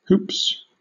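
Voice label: "hoops", British English; pronunciations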